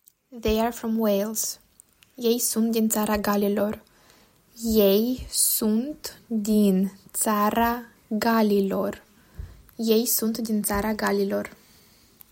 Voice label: "They are from Wales", Romanian

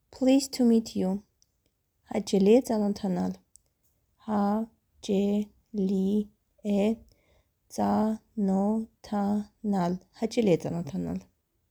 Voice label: Pleased to meet you Armenian Hacheli e tsanotanal

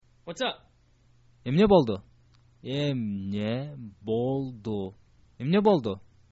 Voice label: whats up, kyrgyz

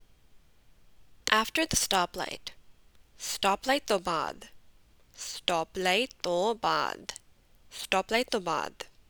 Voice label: Punjabi After the stoplight

